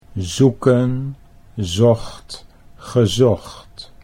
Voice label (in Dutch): zoeken zocht gezocht, Dutch